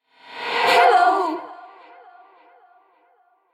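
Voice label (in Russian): голос ужасов хеллоуина здравствуйте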